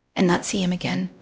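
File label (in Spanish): Voice Acting, es, female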